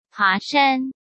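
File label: "爬山", Mandarin Chinese; chinese flashcards; hsk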